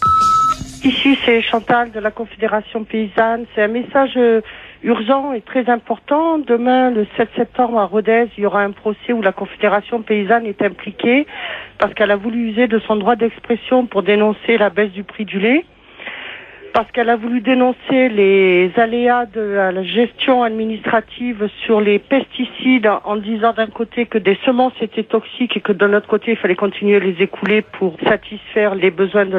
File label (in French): repondeur; Proces Confederation Paysanne